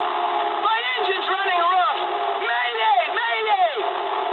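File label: English damaged engine mood medium; War Thunder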